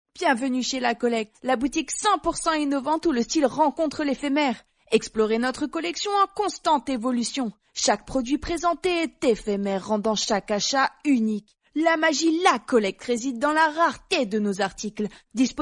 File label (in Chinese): 法语女声